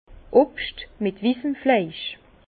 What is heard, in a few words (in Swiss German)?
"flaisch", Bas Rhin; fruits à chair blanche; vin